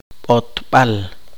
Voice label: poqomchi; el voltorio